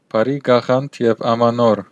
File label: new year, armenian western